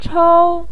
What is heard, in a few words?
"chou1", Chinese pinyin; 抽